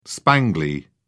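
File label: "spangly", English, British English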